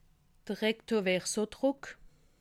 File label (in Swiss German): "recto", alsace